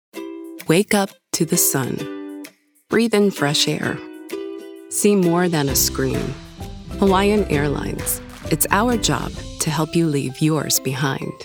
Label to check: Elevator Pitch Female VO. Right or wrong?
Wrong